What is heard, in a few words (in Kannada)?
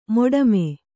"ಮಡಿಮೆ", Kannada